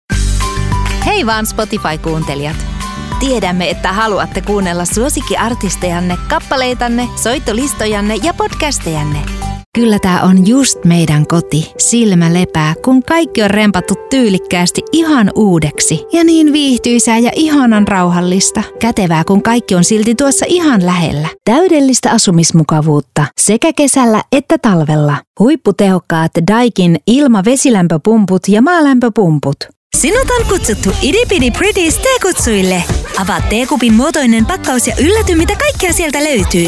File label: finnish promos, finnish voice actors